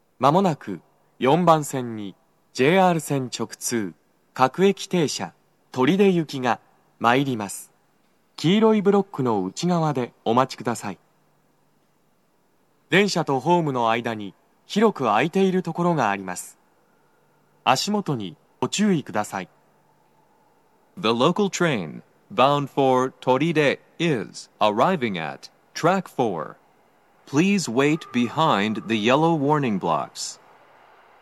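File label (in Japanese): 接近放送 男声, 各駅停車 取手行; 日比谷駅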